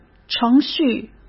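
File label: "程序", Mandarin Chinese; hsk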